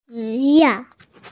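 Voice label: "xu3 yuan4", Mandarin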